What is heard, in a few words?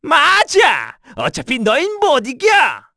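Vox Skill, Korean; Bernheim; kingsraid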